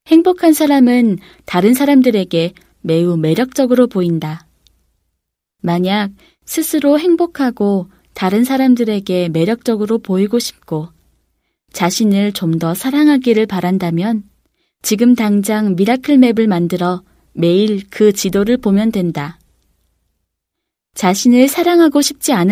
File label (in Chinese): 韩语女 外语 小语种